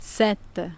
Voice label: "sette", Italian

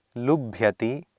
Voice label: "lubhyati", Sanskrit

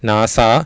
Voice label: "naasaa", Sanskrit

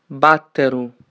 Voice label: "4", Sardinian

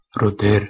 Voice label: "rudhir" Hindi

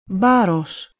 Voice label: "baros" Ancient Greek

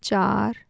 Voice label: "char", Urdu four

